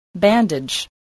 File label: "bandage", English